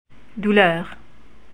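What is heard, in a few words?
pain, French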